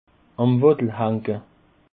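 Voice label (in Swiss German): "wattel", Alsatian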